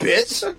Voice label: "bitch", expletives

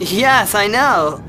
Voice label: yes i know, boy

